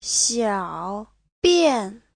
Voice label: "xiao3bian4", Chinese; Giáo trình Hán ngữ

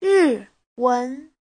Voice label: "ri4wen2", Chinese

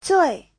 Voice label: "zui4", chinese

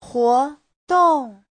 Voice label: "huo2 dong4", chinese; 活动; hoạt động

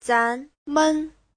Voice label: "zan2 men5", Chinese

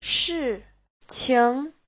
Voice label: "shi4qing2", Chinese

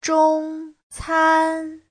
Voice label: "zhong1can1", chinese; 中餐; bữa cơm Trung Quốc

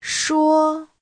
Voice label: "shuo1", Chinese

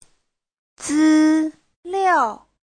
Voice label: "zi1liao4", chinese

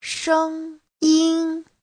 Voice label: "sheng1yin1", Chinese; 声音; Giáo trình chuẩn HSK3

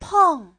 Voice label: "pèng", Chinese; 碰; va, chạm, gặp, đâm

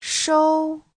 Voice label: "shou1", Chinese

